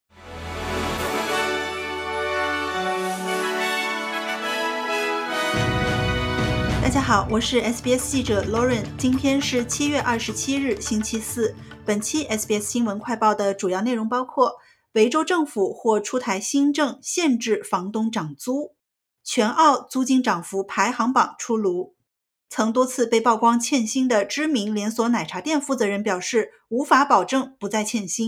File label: mandarin news flash; 维州或出台新政禁止房东每两年涨租超过一次; SBS Chinese; SBS新闻快报